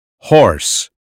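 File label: "horse", American phonetics; 英语音标发音（剑桥版）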